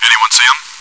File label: chitchat; svencoop